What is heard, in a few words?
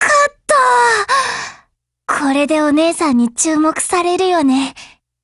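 win, Japanese; HK21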